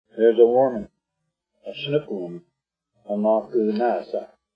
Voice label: Chinook, honóókuunéése' = a Chinook wind is blowing, Arapaho; (literally "a warming-up wind"); Arapaho Language Project; RMNP; Weather Vocabulary related to Winter